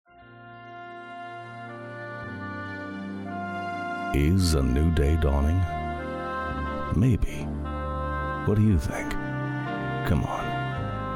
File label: Political, Male